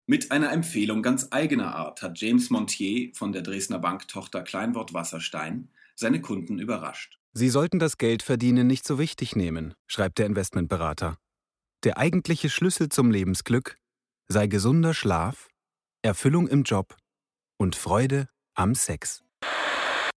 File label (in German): sachlich-normale stimme (bericht, doku)